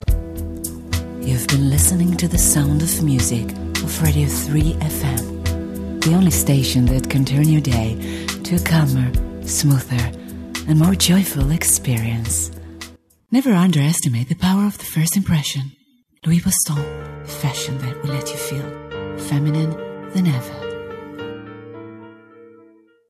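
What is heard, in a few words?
Hebrew, English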